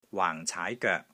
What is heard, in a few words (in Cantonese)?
"WAANG4 CAAI2 GOEK3", Wing Chun; Side Stomp Kick; Wing Chun Elements Glossary